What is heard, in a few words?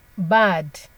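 "bad" Nigerian Pidgin, female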